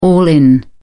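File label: "all in", English, British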